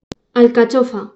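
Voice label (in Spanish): "alcachofa", Spanish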